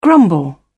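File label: "grumble", British English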